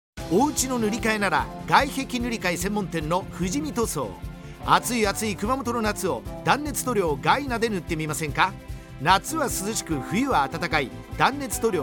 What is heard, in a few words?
radio CM